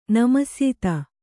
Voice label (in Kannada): "ನಮಸ್ಯಿತ", Kannada